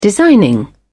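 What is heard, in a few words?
"designing", British English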